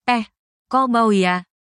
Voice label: indonesian phrases